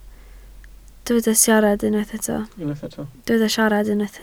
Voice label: "siarad", Welsh

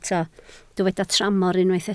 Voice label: "tramor", Welsh

